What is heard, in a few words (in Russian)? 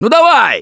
defibrillator, left4dead2 russian, Survivor Voice; Gambler